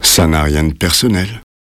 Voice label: dialogue cowboy